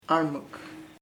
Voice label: deaf, chamacoco; sordo